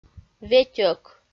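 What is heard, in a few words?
"ветьӧк", Komi, female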